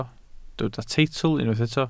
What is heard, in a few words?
"teitl", Welsh